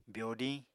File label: "byodiñ", Apatani